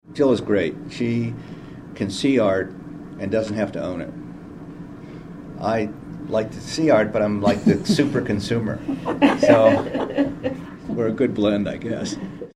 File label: promo